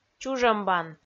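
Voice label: "чужӧмбан", Komi, female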